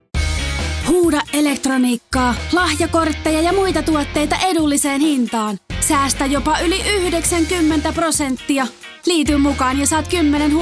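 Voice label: Finnish commercial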